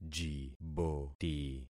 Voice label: "jiboti", concat syllables; Affixing experiment